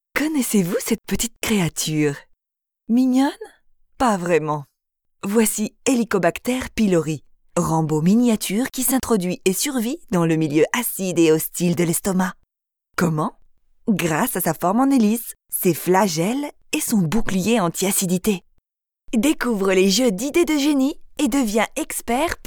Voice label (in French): VOIX JOUEE